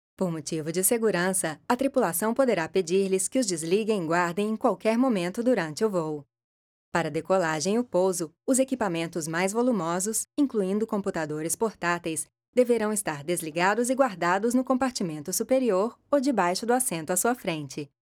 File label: PORTUGUESE FEMALE